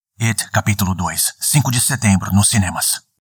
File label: portuguese brazilian movie trailers